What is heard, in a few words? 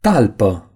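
"sole shoe", Romanian; gcompris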